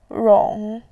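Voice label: "rong", Mandarin Chinese, third tone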